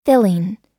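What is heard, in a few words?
"filling", US English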